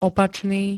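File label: "opačný", Slovak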